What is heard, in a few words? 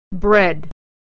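vowel e "bread", pronunciation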